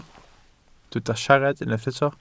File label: "siarad", Welsh